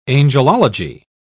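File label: "angelology", British English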